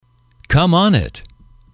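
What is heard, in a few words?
"come on in", English, male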